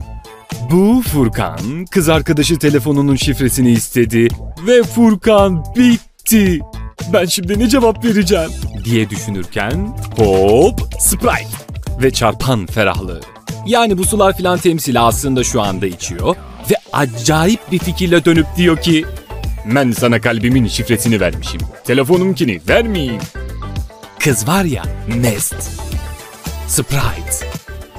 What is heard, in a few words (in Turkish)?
reklam; sprite